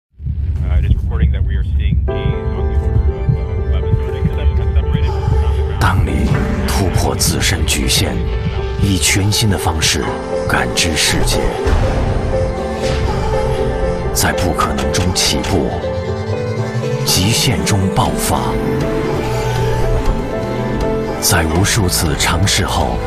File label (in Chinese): 男 广告片, 国语男声配音; 悦创造奇迹60s